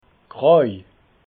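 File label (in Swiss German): "groi", Alsatian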